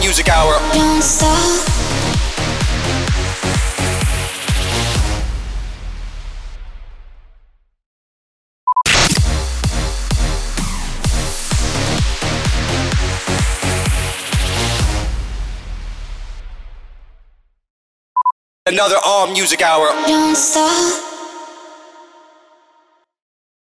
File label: SWEEPER COMMERCIAL FREE